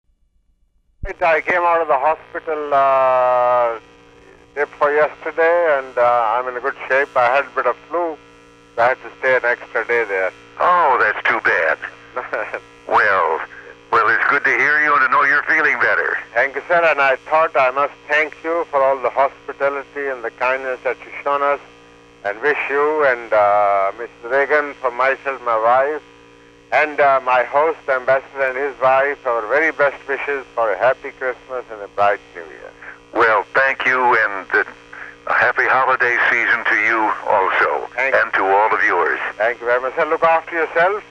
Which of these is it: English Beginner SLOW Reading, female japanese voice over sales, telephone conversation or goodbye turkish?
telephone conversation